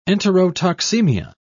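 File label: "enterotoxemia", British English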